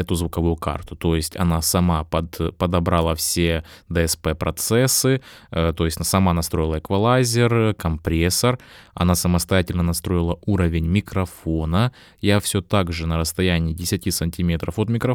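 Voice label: lewitt connect 6 voice with DSP; Голос с DSP; Lewitt Connect 6 — аудиоинтерфейс за 300$, с возможностью записи в двуканальном режиме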